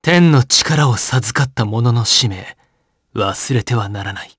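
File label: voice, Japanese; Arch; kingsraid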